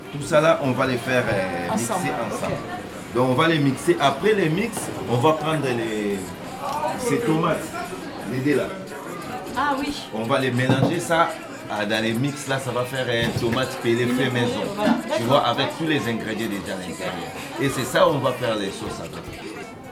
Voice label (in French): interviewes et ambiance cuisine